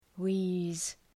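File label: "wheeze", English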